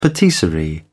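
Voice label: "patisserie", British English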